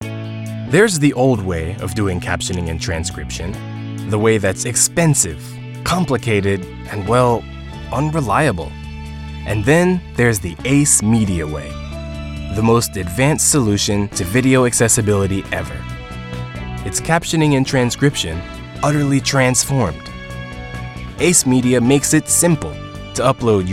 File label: Narration; Narration Demo